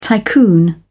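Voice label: "tycoon", English, British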